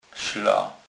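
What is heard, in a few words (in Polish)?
"sla", Kurpie dialect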